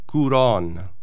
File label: cajun french